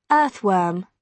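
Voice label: "earthworm", British English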